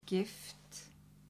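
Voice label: "gift", Icelandic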